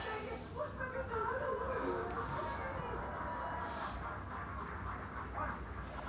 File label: comedy